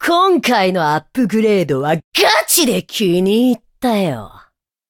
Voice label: levelup ja; Cookie Run